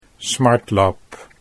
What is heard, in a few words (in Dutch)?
"smartlap", Dutch